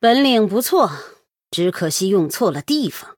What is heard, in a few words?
Female Human Well Played; 称赞; zh; 对决模式; 渗透者莉莉安